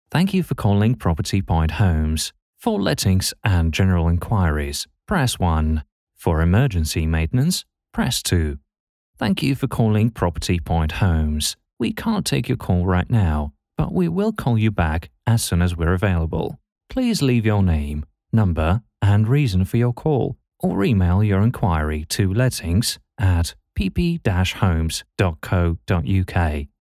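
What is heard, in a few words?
English UK Male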